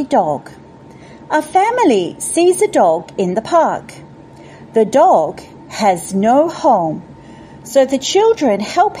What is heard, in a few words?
English Ambassador Script